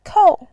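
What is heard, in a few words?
"kou4", Mandarin Chinese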